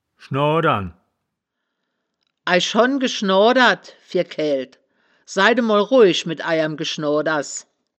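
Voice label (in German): "schnao dtern", wittlicher woerterbuch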